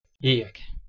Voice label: "eac", Anglo Saxon